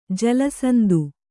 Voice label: "ಜಲಸಂಧಿ", kannada